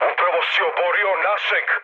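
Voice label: Serbian player killed ally mood med; War Thunder; speech srb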